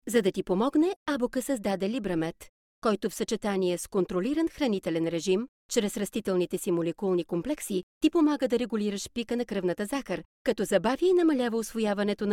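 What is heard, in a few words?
bulgarian female commercial; voice demos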